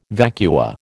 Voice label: "vacua", American